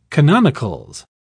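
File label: "canonicals", British English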